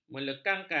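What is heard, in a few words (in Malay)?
"melekangkan", Malay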